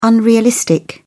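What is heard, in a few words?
"unrealistic", British English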